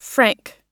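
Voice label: "frank", American English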